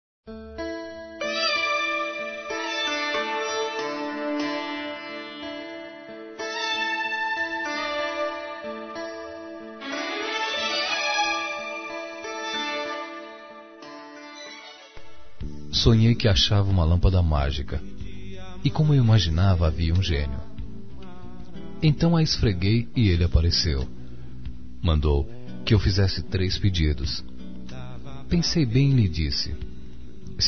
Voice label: Masculino oceano, Telemensagens; Dia Dos namorados; O Gênio da Lâmpada; Telemensagens 2017

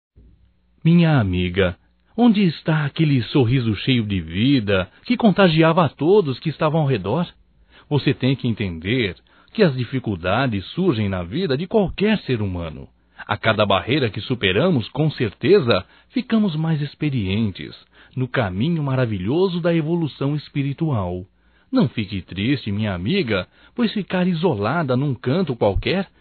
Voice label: Otimismo Amigo Masculino; Telemensagens 2017

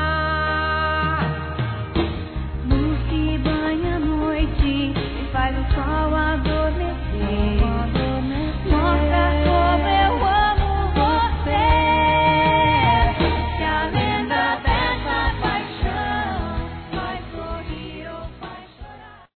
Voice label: FILHO F, Telemensagens Desculpas fem; Telemensagens 2017

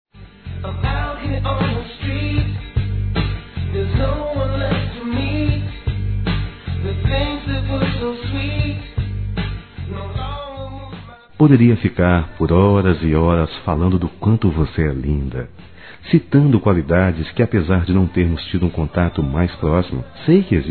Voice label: Paquera Masculino; Telemensagens 2017

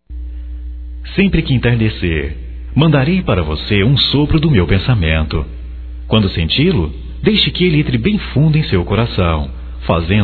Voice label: Amizade Geral Masculino, Só Voz; Telemensagens; Telemensagens 2017

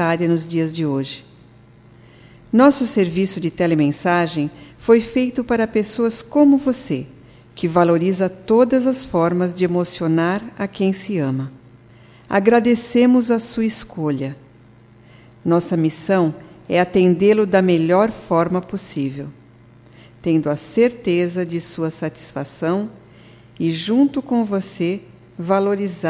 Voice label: AGRADECIMENTO AO CLIENTE F, Telemensagens

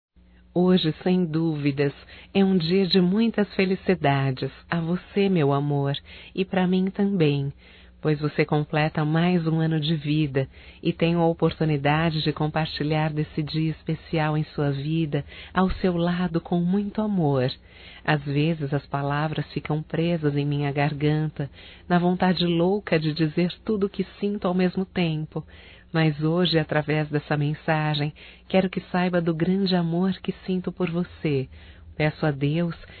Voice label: ANIV romântico (F); Aniversarios; Telemensagens; Telemensagens 2017

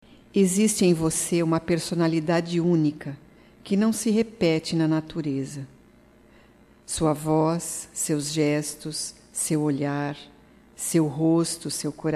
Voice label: OTIMISMO, fem, Voz; Telemensagens 2017

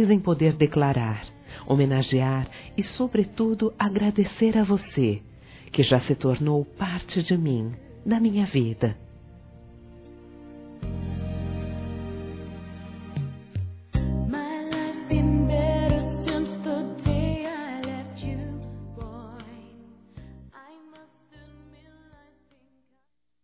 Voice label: DECLARAÇÃO DE AMIZADE, Telemensagens, Fem

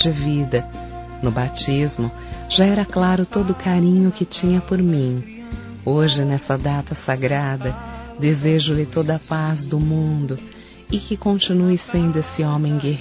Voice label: Natal Para Padrinho Feminino; Telemensagens; Natal e Ano novo

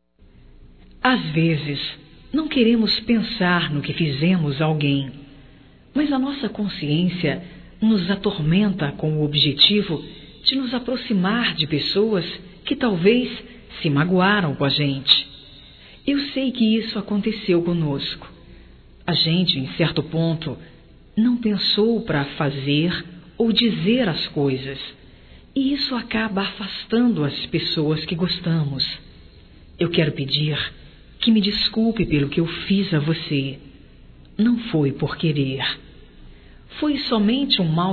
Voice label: DESCULPAS ROMâNTICAS, Românticas fem

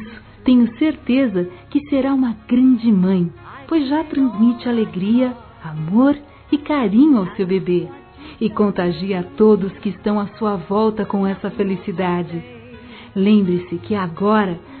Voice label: PARA GESTANTE F, Telemensagens Dia das Mães Fem; Telemensagens 2017

